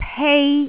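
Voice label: "pei1", Cantonese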